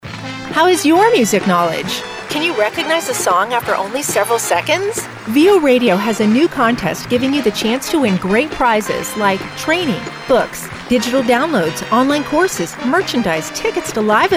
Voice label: promo name that tune weekly